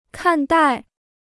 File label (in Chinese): "看待", Chinese